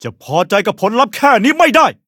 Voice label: levelup, th; cookie run